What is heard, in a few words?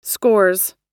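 "scores", American English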